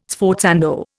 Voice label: "sforzando", Indian